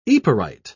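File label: "yperite", British English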